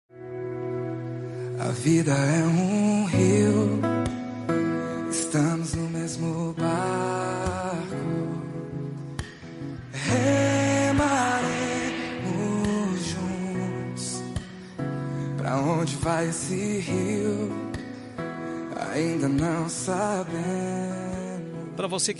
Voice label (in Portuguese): tio masc, Dia dos Pais para Tio – Voz Masculinas